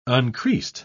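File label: "uncreased", British English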